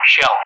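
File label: "Shell", English; Callsign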